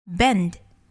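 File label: "bend", English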